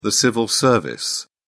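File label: "civil service", British English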